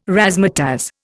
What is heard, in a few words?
"razzmatazz", Indian